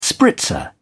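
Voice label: "spritzer", English, British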